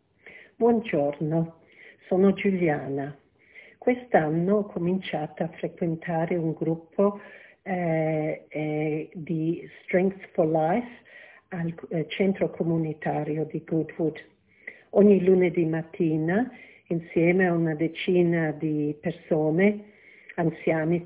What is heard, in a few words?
Italian